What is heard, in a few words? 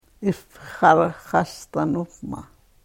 karuk